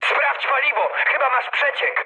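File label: tank damaged, mood high, Polish speech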